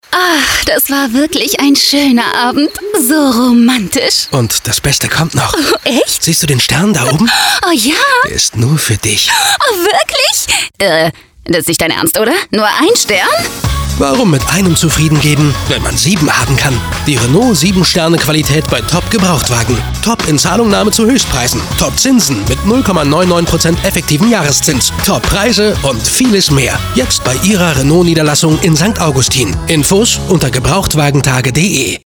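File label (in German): funk spot; renault 7 sterne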